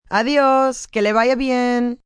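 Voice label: Take Care Spanish female, Goodbyes, female, foreign, Spanish; VoiceBox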